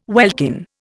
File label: "welkin", Indian